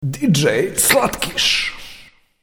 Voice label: jingle